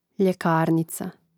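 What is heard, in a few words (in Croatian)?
"ljekarnica", Croatian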